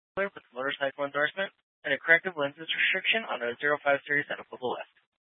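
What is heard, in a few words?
Troop 2A Dispatch, scanner audio recording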